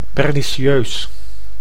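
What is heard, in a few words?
"pernicieus", Dutch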